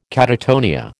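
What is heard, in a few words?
"catatonia", American English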